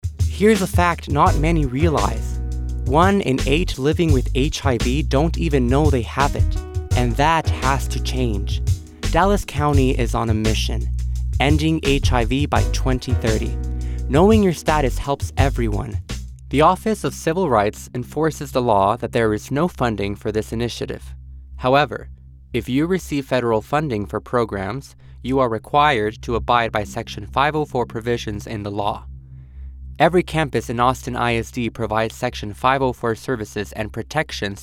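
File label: eLearning Millennial Male; Spanish (Latin and Castillian); Commercial Voiceover, TV and Radio, Narration, Corporate, Medical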